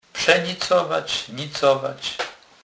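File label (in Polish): "przenicowac", Kurpie dialect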